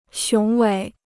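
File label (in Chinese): "雄伟" Chinese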